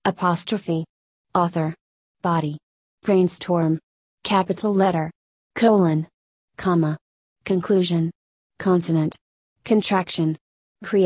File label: usa